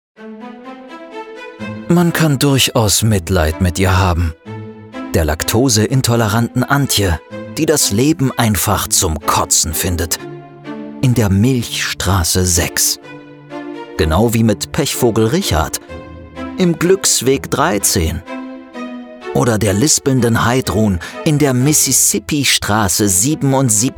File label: Web Spot; Immowelt